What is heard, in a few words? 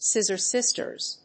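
"scissor sisters", English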